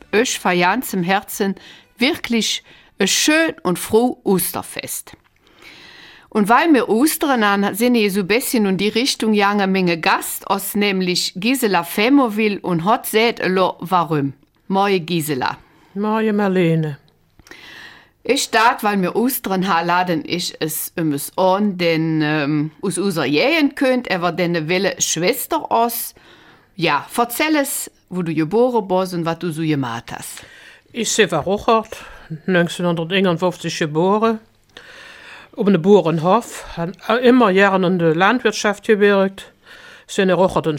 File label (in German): Eifeler Mundart; Klosterschwester im Karmel zu Sittard